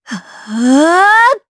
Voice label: Vox Casting jp; kingsraid